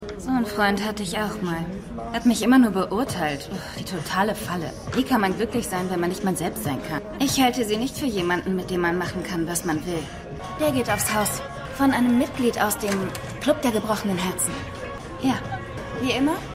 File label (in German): Barfrau; Shades Of Blue